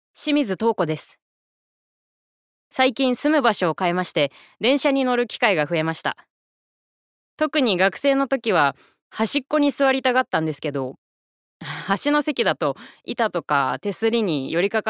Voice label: Freetalk, female voice